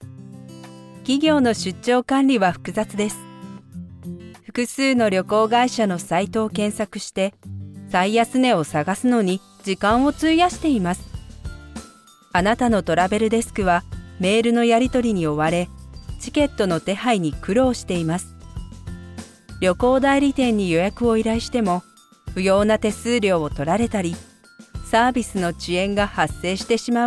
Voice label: japanese corporate videos

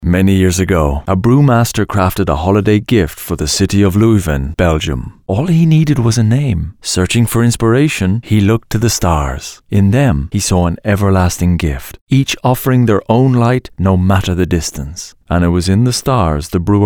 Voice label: english irish commercial

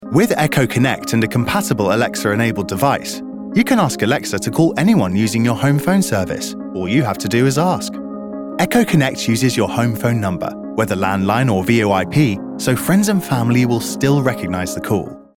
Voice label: english british corporate videos

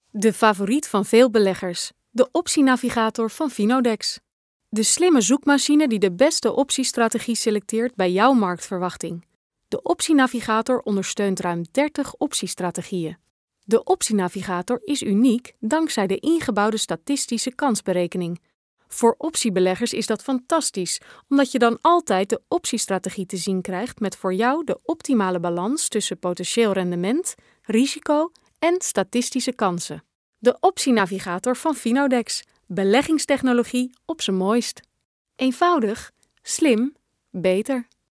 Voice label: dutch

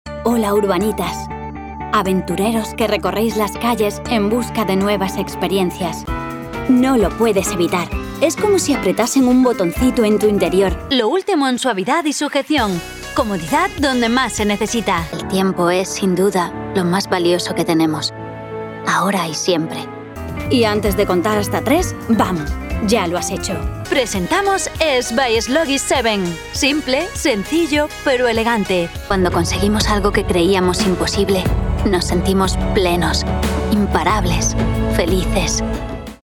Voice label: spanish commercial; Spanien; Spanisch; Ich bin eine spanische Synchronsprecherin

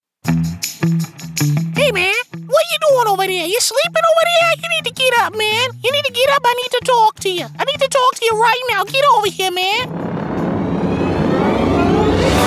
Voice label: english american animation; dublador profissional afro-americano

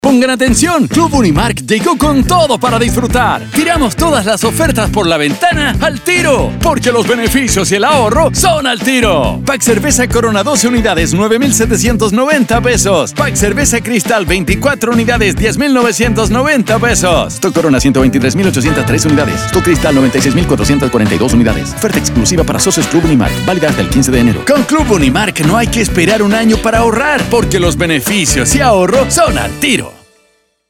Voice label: spanish latin american announcements

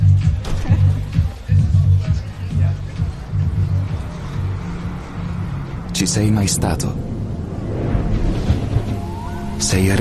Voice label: italian

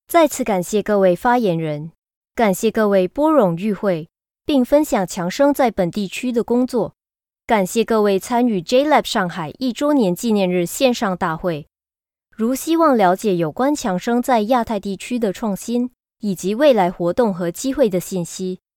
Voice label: chinese mandarin narration

